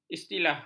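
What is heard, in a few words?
"istilah", Malay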